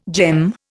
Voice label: "gem", Indian